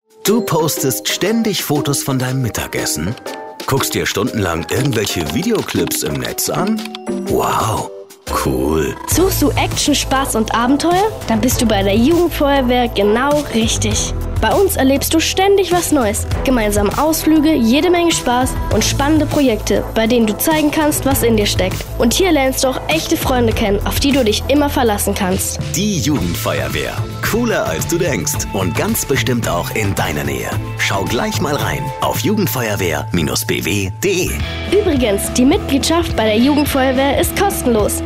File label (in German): Werbespot